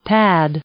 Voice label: "pad", English; noun